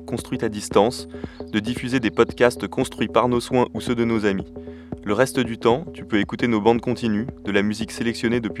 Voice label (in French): jingle radio; radio canut diffusion appel a temoignages